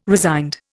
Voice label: "resigned", Indian